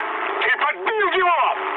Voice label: player killed enemy, mood med, speech ru